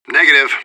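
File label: radio bot response negative; fbihrt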